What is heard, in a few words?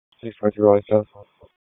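Raleigh Police Southwest District Dispatch, p25; wake p25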